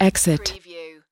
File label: US female, "exit"; lynne publishing